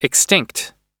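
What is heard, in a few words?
"extinct", English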